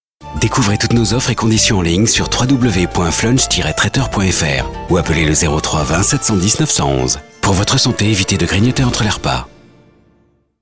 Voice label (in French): Pub Signature droite et chaleureuse; Flunch